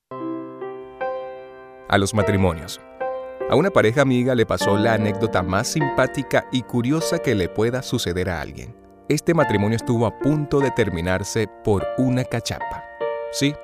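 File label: Spanish male; 西班牙语男; 外语; 小语种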